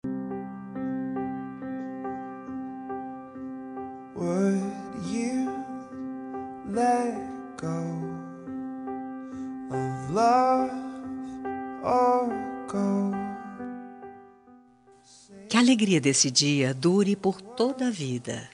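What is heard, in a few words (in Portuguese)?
dia das mães female filha, Dia das Mães – Para minha Mãe – Voz Feminina; Telemensagens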